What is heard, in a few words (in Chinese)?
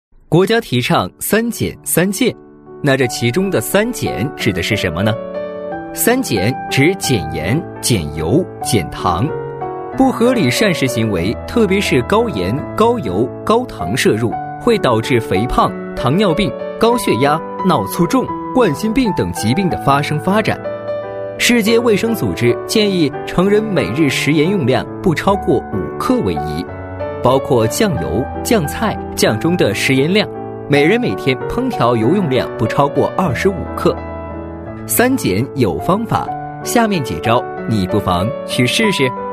男 MG解说 年轻亲切; 三减; 男WX-年轻多变; 年轻舒缓